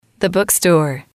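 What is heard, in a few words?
"book store", English, female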